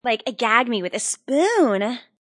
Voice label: Tn Girl Sys Gag Me Sp, Teen Girl Says Gag Me With The Spoon; Talking